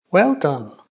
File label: "done", ulster; jetpackLearningPlatform; story-arranger